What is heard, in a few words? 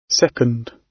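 "second", British English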